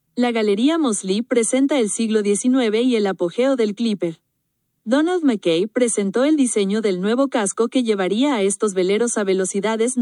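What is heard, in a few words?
spanish, audio tour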